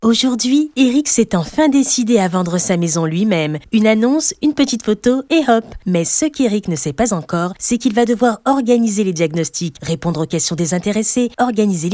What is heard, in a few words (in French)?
institutionnel souriant explicatif; weezhome